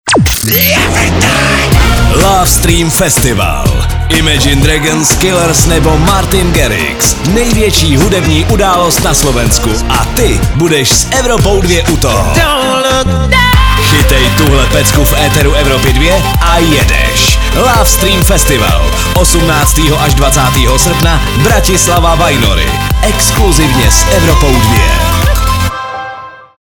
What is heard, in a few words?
liner; lovestream